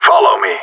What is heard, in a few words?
follow me, radio; GSG9